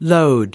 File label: "load", us